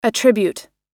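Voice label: "attribute" US English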